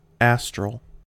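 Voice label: "astral" English, US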